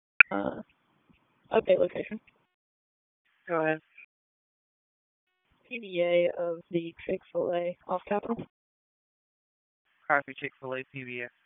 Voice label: Police North District Disp, p25; Raleigh